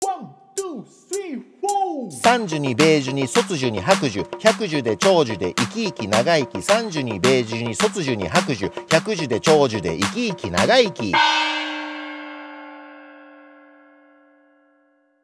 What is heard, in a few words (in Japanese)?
早口言葉リズム（中級編）